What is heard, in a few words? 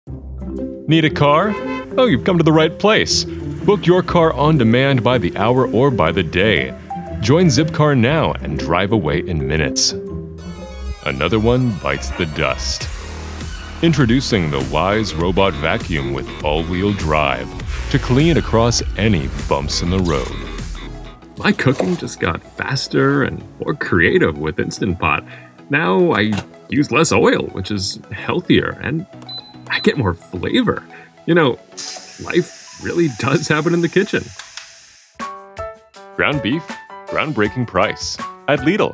Commercial